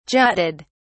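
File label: "jutted", British English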